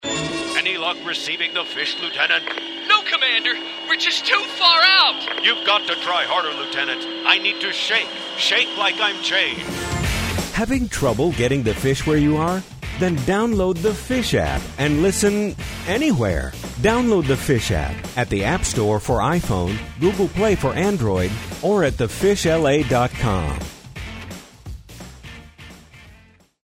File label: App promo; UFO; KFSH